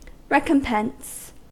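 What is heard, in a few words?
"recompense", American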